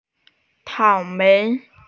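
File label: "cao3mei2", Mandarin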